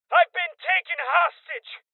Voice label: Voices Hostage Taken Male Young; Rebel Cops